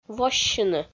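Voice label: "воссьыны", Komi, female; audio dict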